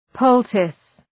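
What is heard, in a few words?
"poultice", English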